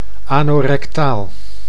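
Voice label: "anorectaal", Dutch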